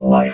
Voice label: "life", robot vox

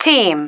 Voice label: "team", female

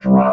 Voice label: "drop", robot vox

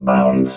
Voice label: bounds, robot

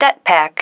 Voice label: "detpack", female

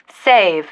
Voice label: "save", female

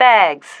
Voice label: "bags", female

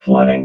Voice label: "flooding", robot vox

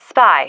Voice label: "spy", female

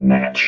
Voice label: "match", robot

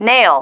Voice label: "nail", female

